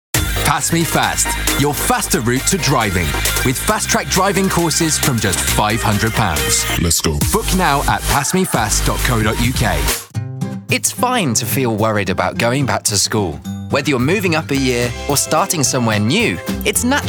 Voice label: UK Radio Spots

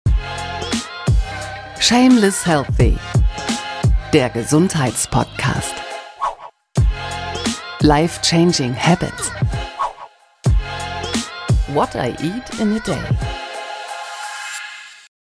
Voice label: Podcast Rubriken; Shameless Healthy

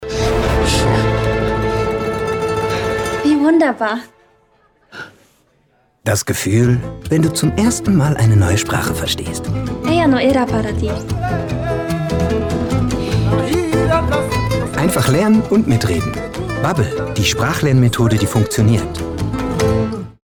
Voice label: VoiceOver; Babbel

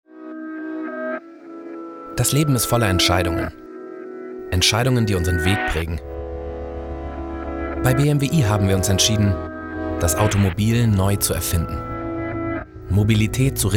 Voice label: tvc; bmw i3

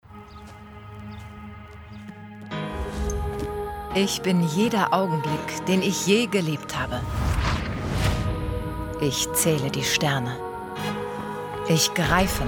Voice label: trailer; ard olympia

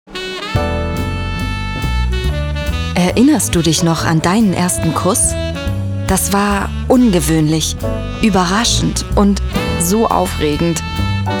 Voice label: Radiospot Voice; Coca Cola Life

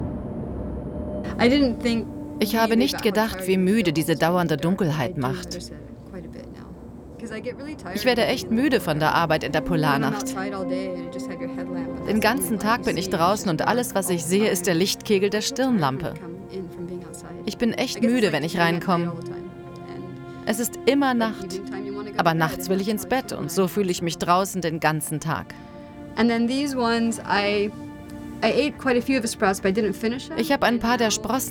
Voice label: Voiceover; Arktis

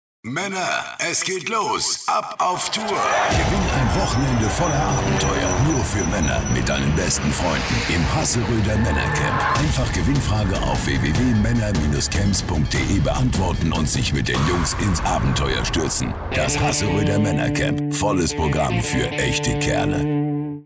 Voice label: action spots; LOFT VOICES

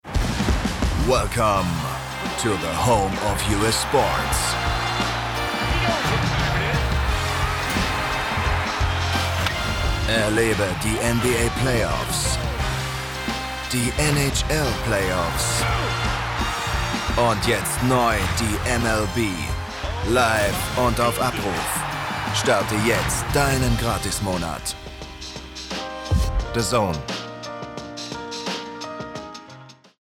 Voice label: tv commercial spot german; dazn home of us

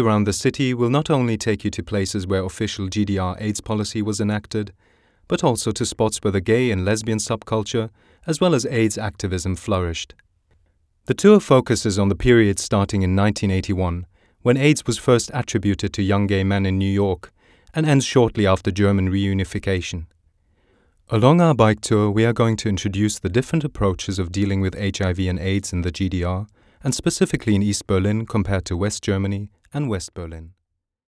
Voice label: Audio Guide; berlin History

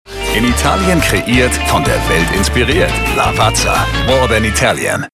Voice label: TVC; LAVAZZA

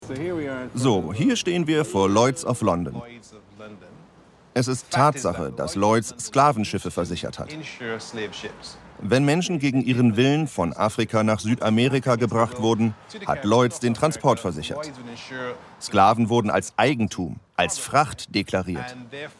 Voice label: over voice, Overlay, German; Black History Walk London

